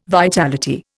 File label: "vitality", Indian